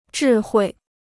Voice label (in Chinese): "智慧", Chinese